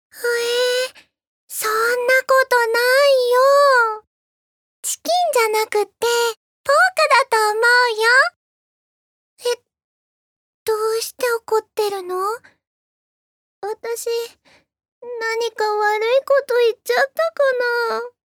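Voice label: voice sample, airhead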